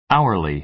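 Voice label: "hourly", American English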